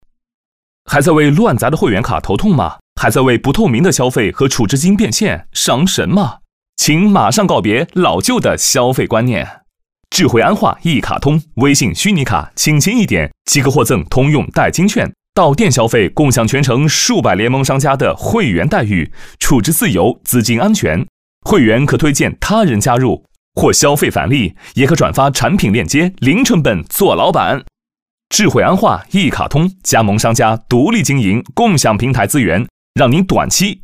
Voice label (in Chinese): 男,MG; 飞碟说; 智慧安化MG